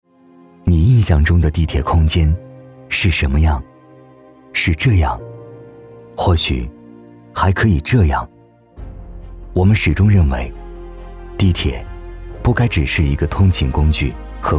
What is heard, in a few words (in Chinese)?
男, 走心; 地铁传媒